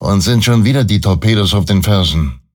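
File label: Alarms Torpedo Back, Speech; Lehmann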